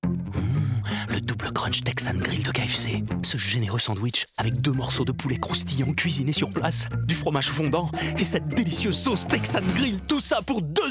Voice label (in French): Radio; KFC Dbl Krunch Texan Grill